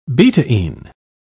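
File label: "betaine", British English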